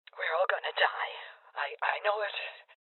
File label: Voices Heavy Losses Female Old